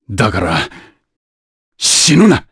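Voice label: vox dialogue, voices, Japanese; kingsraid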